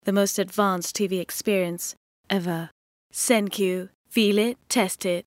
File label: TV Commercial